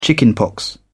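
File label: "chickenpox", British English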